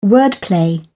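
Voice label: "wordplay", British English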